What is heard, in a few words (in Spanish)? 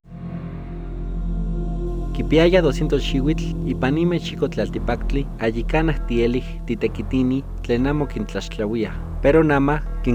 nhuatl de la huasteca veracruzana; Trata Laboral